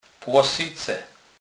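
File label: "plosyce", Polish, Kurpie dialect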